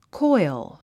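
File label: "coil", English; 発音 kɔ’il コイル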